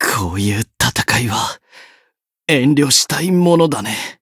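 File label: win barely, Japanese; zeviro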